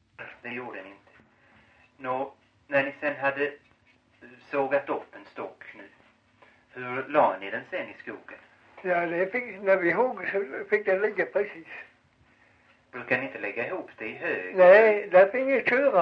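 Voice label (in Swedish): Farstorp Skåne, dialekter; Folkminnen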